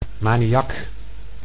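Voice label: "maniak", Dutch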